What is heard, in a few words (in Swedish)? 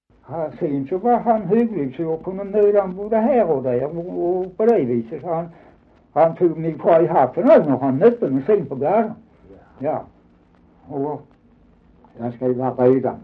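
Dalby Skåne, dialekter; Folkminnen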